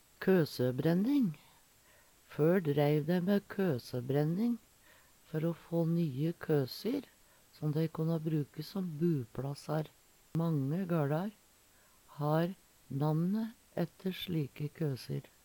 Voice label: kosabrenning, Numedalsmål

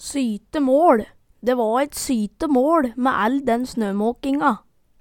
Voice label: sytemåL, Numedalsmål

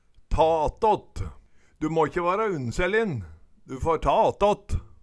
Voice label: ta attåt, Numedalsmål

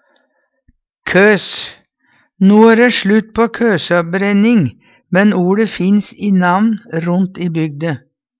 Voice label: "køs", Numedalsmål

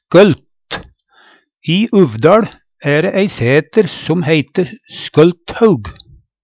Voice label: skolt, Numedalsmål; skølt; I Uvdal æ det ei sæter som heite Skølthaug.; Adjektiv

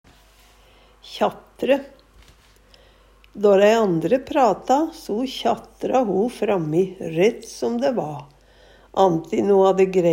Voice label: kjatre, Numedalsmål

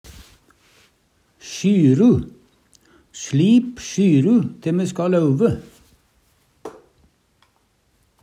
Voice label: "sjyru", Numedalsmål; Substantiv hokjønn; Slip sjyru te me ska lauve.

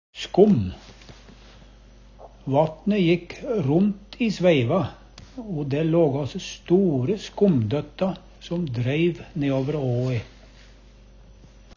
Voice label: "skom", Numedalsmål

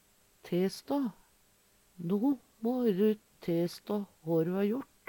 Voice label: testå, Numedalsmål; Verb; No må`ru testå hå du ha jort!

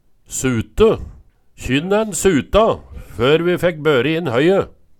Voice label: sute, Numedalsmål

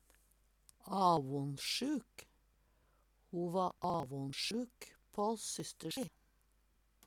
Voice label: "avonsjuk", Numedalsmål

